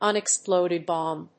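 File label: "unexploded bomb", English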